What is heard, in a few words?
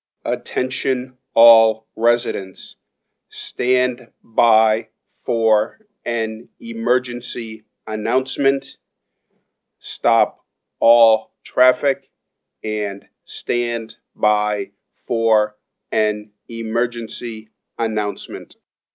Emergency Annoucement; famu-alert; outdoor emergency sirens